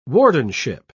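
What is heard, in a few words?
"wardenship", English, British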